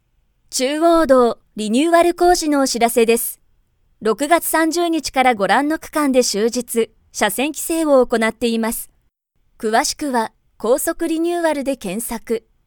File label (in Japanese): 企業PV, 女性ナレーター